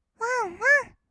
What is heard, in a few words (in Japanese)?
わんわん, kawaii voice; ASMR; 色々なワンショット; 1200 kawaii voice!!